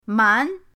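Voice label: "man2", Mandarin Chinese, pinyin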